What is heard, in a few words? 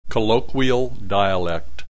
colloquial dialect